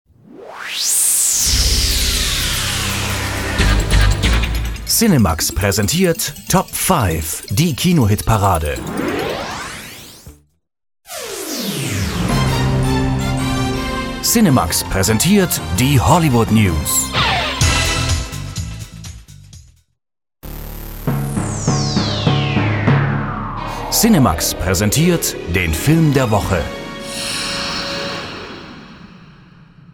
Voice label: Werbung Lebendig

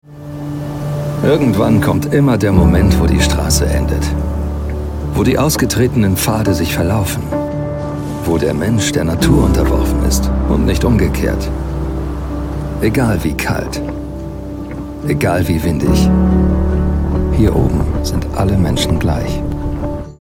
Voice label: Sanft; Fremdtake

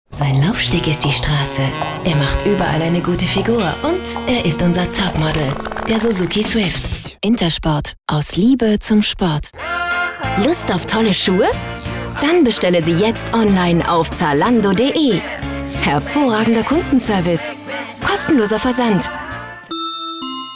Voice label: Werbung Sanft

